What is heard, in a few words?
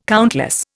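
"countless", Indian English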